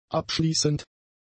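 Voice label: "abschließend", German; adjectives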